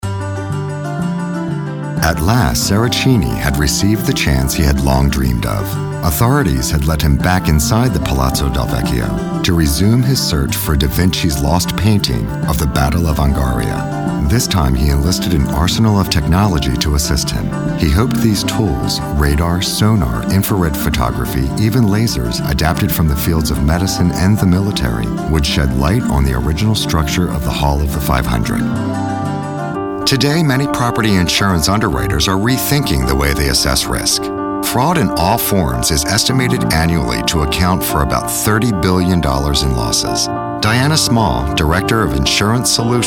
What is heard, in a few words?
Narrative